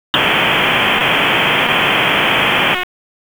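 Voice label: Raleigh Police Northeast District Dispatch; wake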